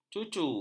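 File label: "cucu", Malay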